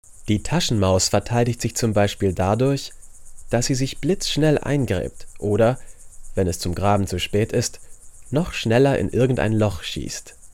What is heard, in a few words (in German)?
off tierreportage; LOFT VOICES